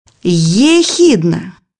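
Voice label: "echidna", Russian; bukvar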